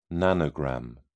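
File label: "nanogram", British English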